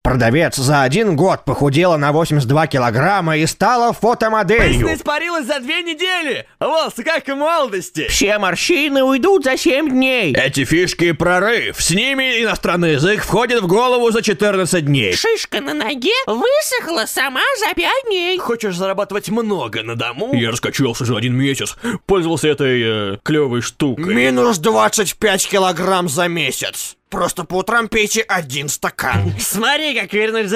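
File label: reklama, advert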